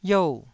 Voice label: "iou4", taiwanese syllables